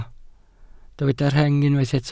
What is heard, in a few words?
"rheng", welsh